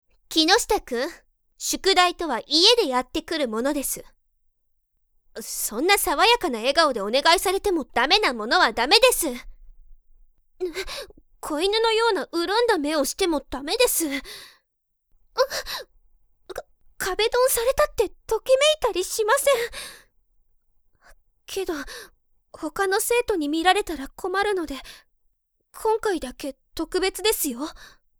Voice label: sample woman